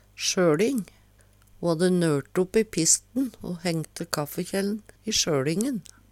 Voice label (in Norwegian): "sjøLing", Numedalsmål